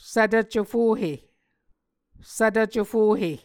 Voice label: "svtv cofohe", Muscogee

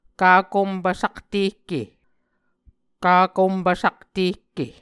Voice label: "kakompv saktehke", Muscogee; Mvskoke Words with Voicing Consonants; A Dictionary of Creek/Muskogee; SIL International; Onvkv Ohhvyayices

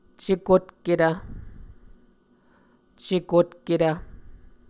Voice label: "cekotketv", muscogee; Mvskoke Words with Voicing Consonants; A Dictionary of Creek/Muskogee; SIL International

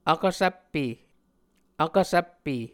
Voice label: "vkvsvppe", Muscogee

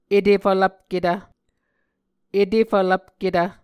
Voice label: "etefvlvpketv", muscogee

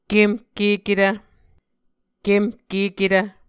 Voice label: "kemhkeketv", Muscogee

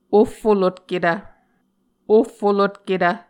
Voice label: "ohfolotketv", Muscogee

